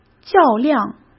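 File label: "较量", Mandarin Chinese; chinese flashcards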